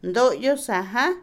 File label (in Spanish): Otomí, mujer; uña; Cuerpo; INALI